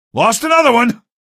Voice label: evac casualty, male; video games; npc; source engine; episodic; episode 1